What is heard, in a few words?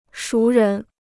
"熟人", Chinese